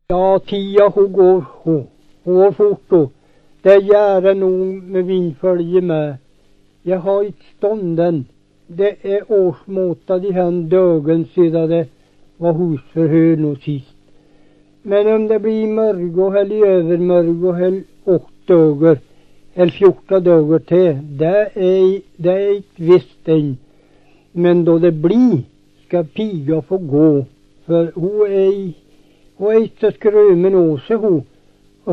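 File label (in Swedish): Hede Härjedalen, dialekter, Svenska sagor; Folkminnen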